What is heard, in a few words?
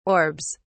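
"orbs", English, British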